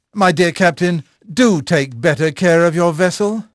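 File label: English male; Pirates Of The Caribbean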